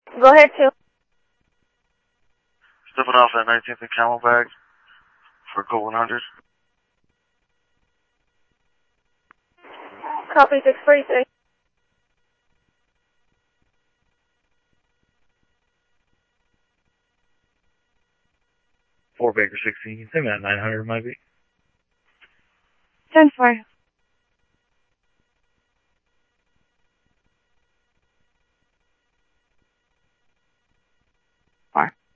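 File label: Light Rail Security Light Rail, scanner audio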